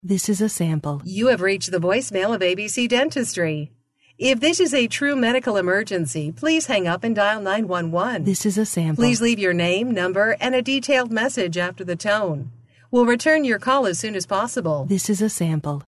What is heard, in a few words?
General Voicemail